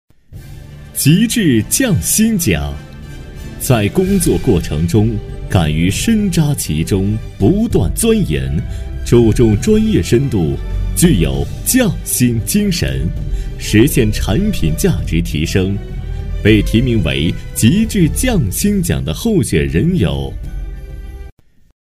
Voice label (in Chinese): 男 大气颁奖; 候选人提名; 厚重有力 大气浑厚